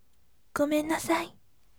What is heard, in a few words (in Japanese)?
voice gomennasai yasashime